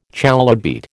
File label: "chalybeate", American